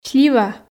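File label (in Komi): "слива", Komi, female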